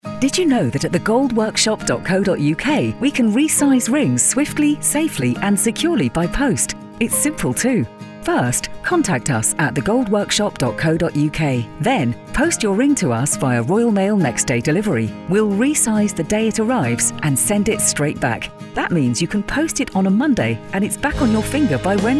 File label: radio advert; The Gold Workshop; Resize